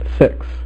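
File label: "six", male; MacCribbage; InterStellar MacCribbage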